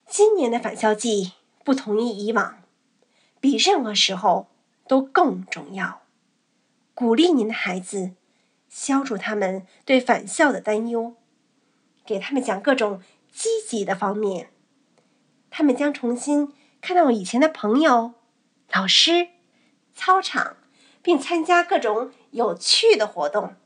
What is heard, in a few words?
Message Mandarin